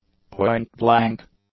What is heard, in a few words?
"point-blank", english; adjectives